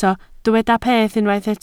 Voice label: "peth", Welsh

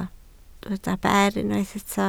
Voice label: "ber", Welsh

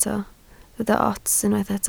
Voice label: "ots", Welsh

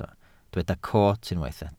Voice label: "coot", Welsh

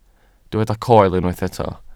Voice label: "coel", welsh

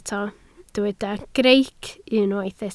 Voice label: "greic", Welsh

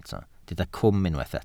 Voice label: "cwm", Welsh

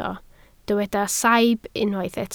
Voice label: "saib", Welsh

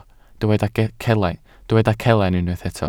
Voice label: "celain", Welsh

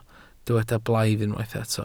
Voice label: "blaidd", Welsh

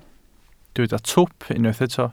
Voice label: "twp", Welsh

